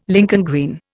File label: "Lincoln green", Indian